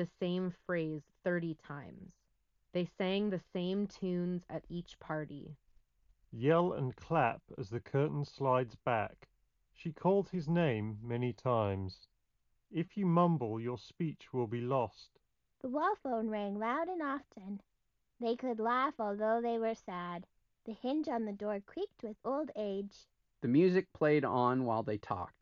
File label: Ideal microphone sample; Beats Studio Buds Plus